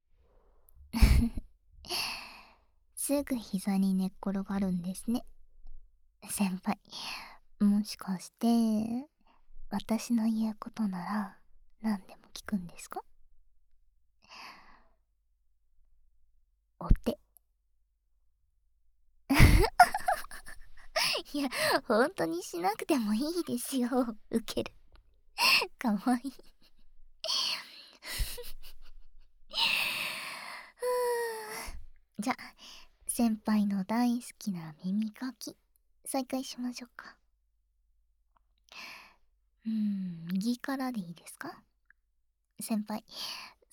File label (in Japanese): 耳かきしながらゆったり思い出話（右）